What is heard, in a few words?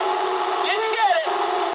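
English bomb failure mood low; War Thunder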